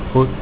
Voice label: good, Afrikaans